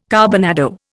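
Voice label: "carbonado", Indian English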